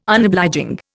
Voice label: "Unobliging", Indian

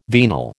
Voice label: "venal", American

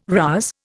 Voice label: "rouse", Indian

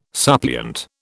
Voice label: "suppliant", British

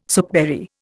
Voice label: "soapberry", Indian

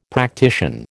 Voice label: "practician", American

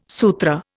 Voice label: "Sutra", Indian

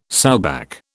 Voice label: "sowback", British

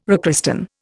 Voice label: "rechristen", Indian

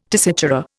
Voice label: "tessitura", Indian

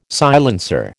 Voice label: "silencer", American

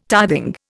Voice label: "tithing", Indian

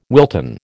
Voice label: "Wilton", American

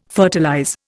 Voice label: "fertilize", Indian

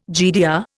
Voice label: "GDR", Indian

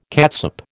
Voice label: "catsup", American

